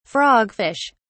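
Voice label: "frogfish", British English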